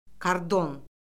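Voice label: "kordon", Azerbaijani; Orfoqrafiya Lüğəti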